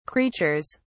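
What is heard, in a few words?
"creatures", British English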